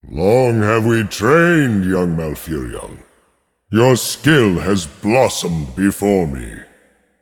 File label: Male Demigod; Cenarius; Malfurion Mission1Intro